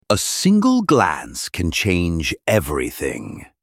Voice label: voice sample, Deceptively gravelly, yet unsettling edge.; Callum; Shot Studio